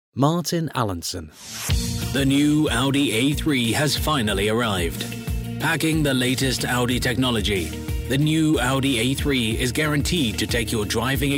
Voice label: Corporate